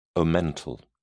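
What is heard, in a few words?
"omental", English, British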